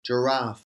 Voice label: "giraffe", English

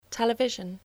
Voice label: "television", English

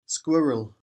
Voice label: "squirrel", English

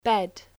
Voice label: "bed", English

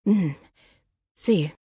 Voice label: Female; Skyrim Special Edition; Dawnguard Beleval

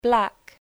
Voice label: "black", English

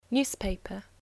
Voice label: "newspaper", English spelling

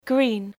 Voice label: "green", English

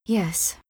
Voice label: Female; Skyrim Special Edition; Dawnguard Beleval

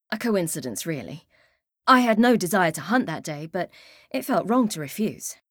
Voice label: Female; Skyrim Special Edition; Dawnguard Beleval

